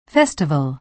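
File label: "festival", American English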